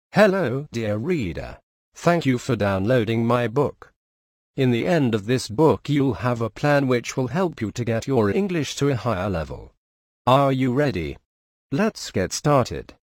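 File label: British; Audiobook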